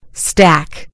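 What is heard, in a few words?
"stack", British English; gcompris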